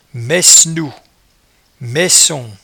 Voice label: "maicenou", patois jura

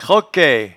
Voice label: "croquaie", patois jura

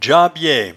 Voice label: "djabiaie", patois jura

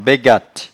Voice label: "baigatte", patois jura

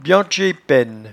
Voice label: "biantcheepenne", patois jura

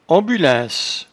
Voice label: "ambulaince", patois jura

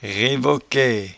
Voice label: "revoquaie", patois jura

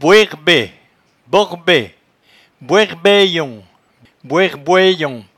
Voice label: "boerbet", patois jura

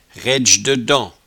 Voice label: "raidgededents", patois jura

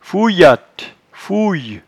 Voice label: "foueyatte", patois jura

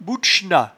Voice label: "bouetchenat", patois jura

